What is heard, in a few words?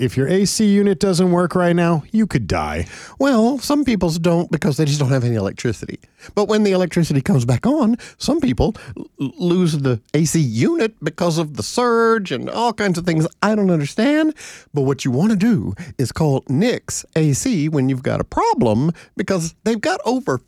RADIO AD; nicks plumbing radio ads